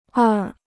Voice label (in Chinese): "二", Chinese